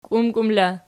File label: "kiumkium" in, Archi